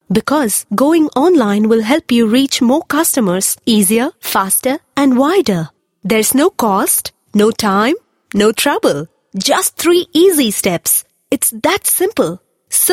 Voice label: Indian English Female Corporate VO

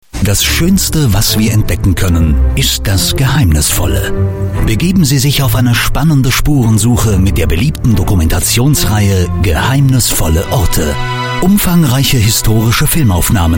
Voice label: German Male TV Promo

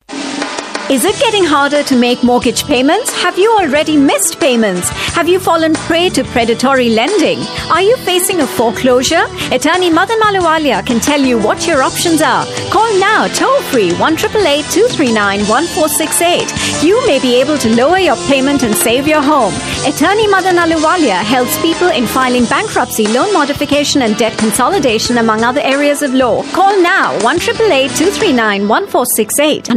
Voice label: Indian English Radio Spot, Female

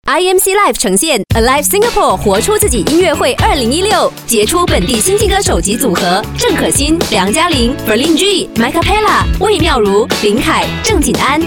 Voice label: Mandarin, Mandarin China Female; ALIVESINGAPORE

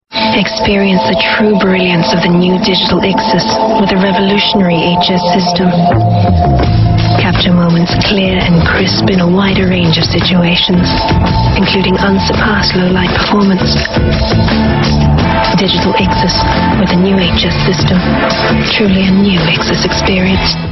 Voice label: Asian English; Canon IXUS

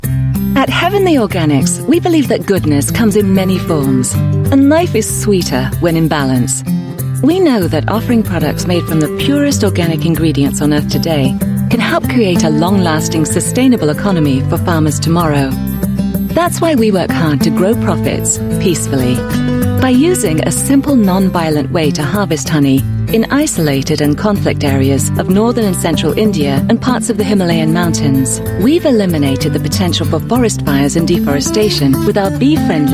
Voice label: UK English; Heavenly Organics

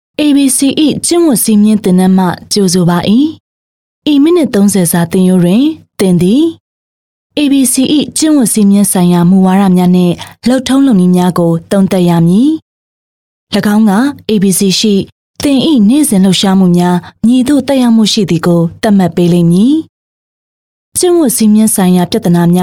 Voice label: f Burmese E-Learning

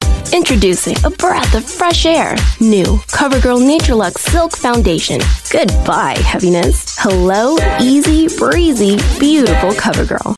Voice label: US English; CoverGirl NatureLuxe Silk Foundation

